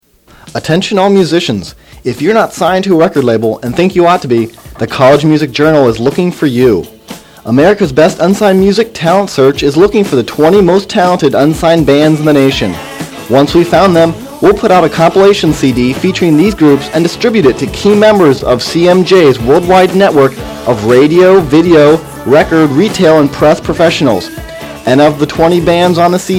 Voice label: Promo; CMJ Unsigned Band Contest